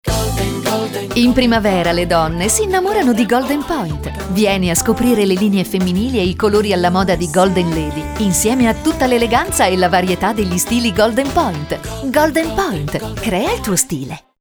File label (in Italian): istituzionale allegro; GOLDENPOINT; GOLDENLADY